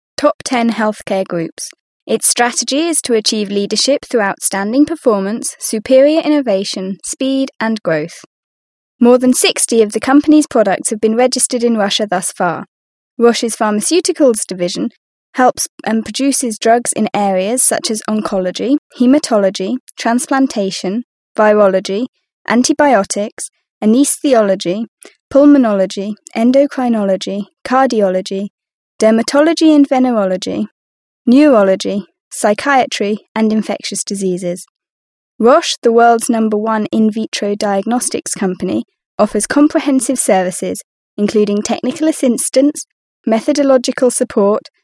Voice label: british english female